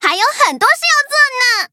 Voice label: touch, zh-hant; cookie run